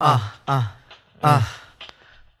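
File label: "uh uh uh", male; ooh ahh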